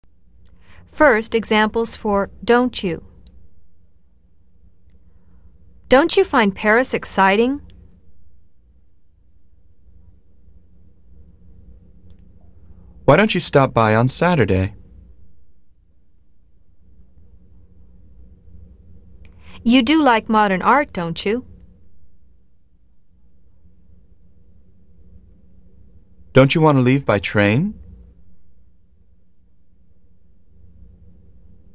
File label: consonants sample practice